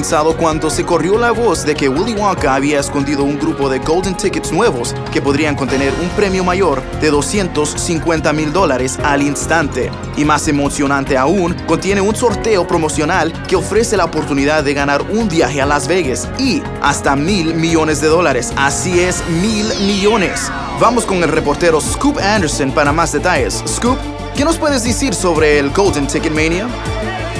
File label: News Break - Spanish